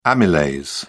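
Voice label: "amylase", British English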